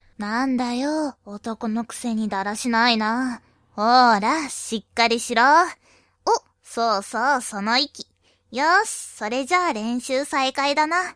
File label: boyish